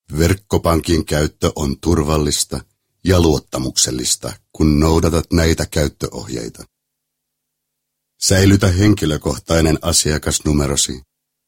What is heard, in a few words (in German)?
Finnland M